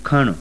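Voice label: voiceless aspirated velar, sindhi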